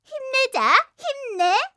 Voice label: ui yell